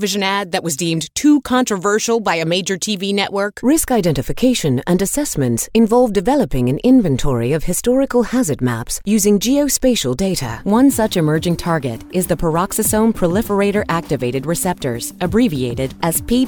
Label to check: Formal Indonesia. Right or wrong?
Wrong